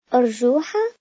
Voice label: ARA swing, Arabic

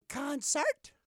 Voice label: "concert", English

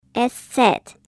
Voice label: German letter ẞ

